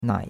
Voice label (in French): "nai3", chinois; Cours-de-Chinois